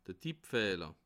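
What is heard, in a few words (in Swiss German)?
"tippfehler", Bas Rhin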